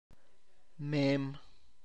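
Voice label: cactus fruit flower, Tlacochahuaya Zapotec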